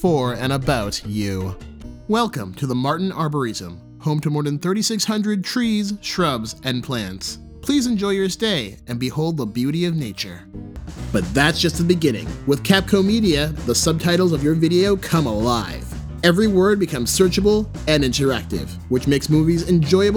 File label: Commercial Reel